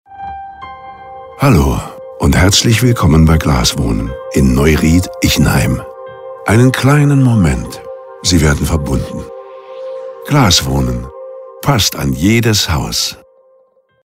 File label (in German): Ansage vor Melden; 1a Glaswohnen